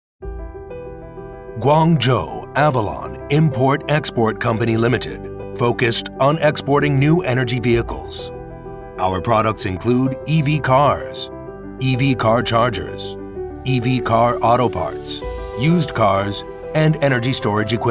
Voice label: 外籍商业化娓娓道来公司宣传片大气

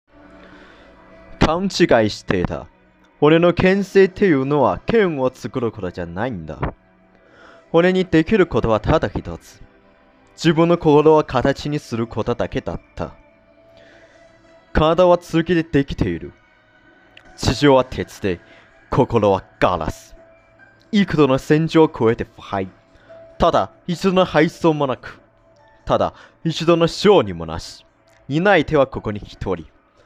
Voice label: 咏唱; 卫宫士郎无限剑制咏唱

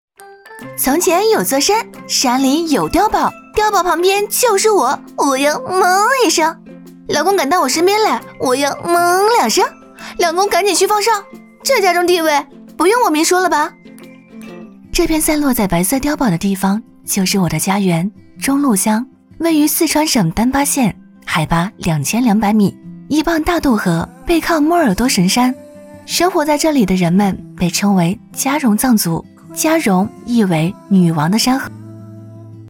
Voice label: 纪录片俏皮 美食纪录片; 味在故里

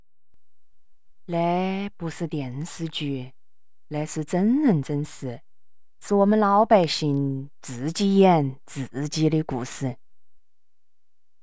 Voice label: 方言重庆

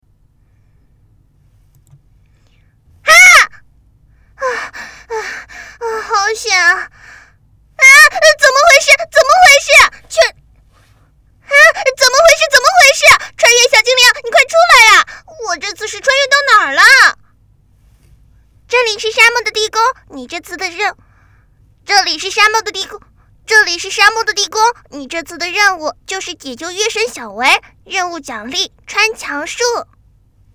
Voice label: 少女

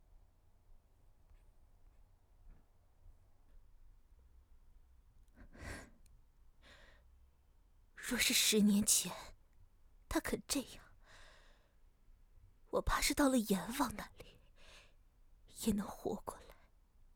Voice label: 御姐心机

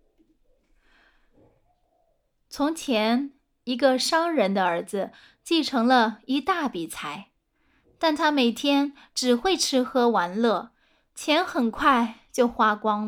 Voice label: 少儿

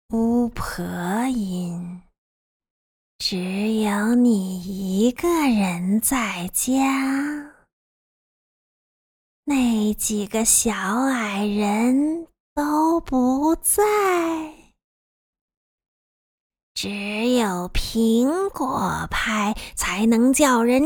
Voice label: 巫婆音; 有声读物&自媒体

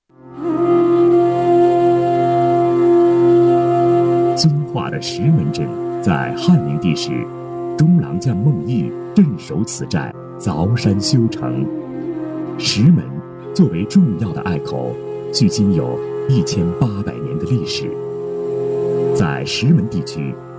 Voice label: 专题片; 遵化石门

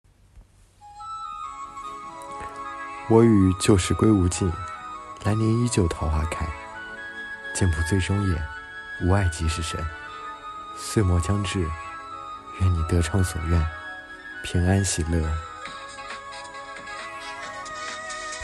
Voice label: 抖音文案

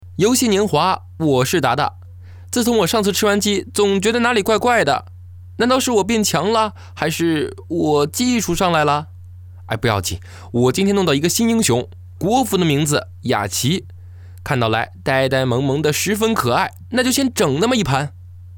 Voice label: 游戏 配音

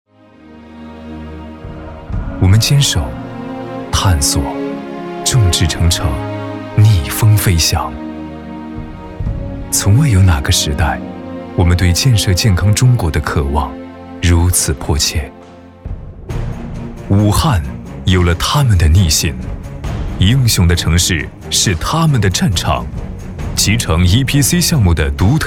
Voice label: 大气煽情; 年度创新超越团队

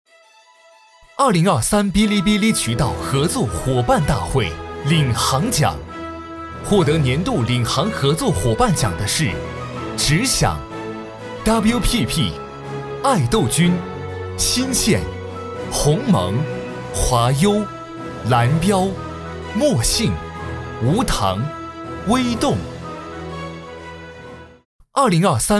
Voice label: 颁奖主持大气; 伙伴大会

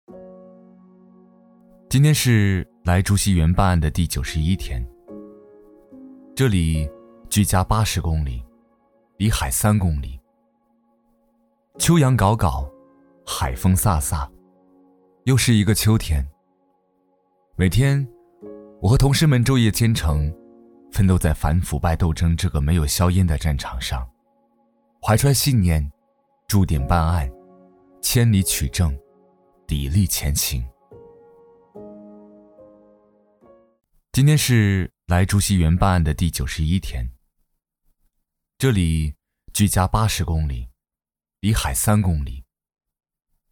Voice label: 独白第一人称; 竹溪时光

